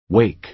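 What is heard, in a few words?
"wake", American English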